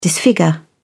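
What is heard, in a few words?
"disfigure", British English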